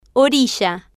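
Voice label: "orilla", female, Spanish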